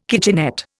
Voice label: "kitchenette", Indian